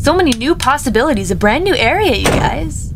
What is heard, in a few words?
"Drop", Worms speechbanks; Mori Calliope